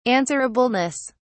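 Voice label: "answerableness", British English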